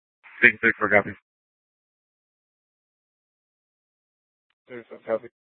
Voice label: Central Dispatch, Mesa PD; TOPAZ Regional Wireless Cooperative (TRWC) - Mesa Simulcast